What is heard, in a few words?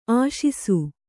"ಆಶಿಸು", kannada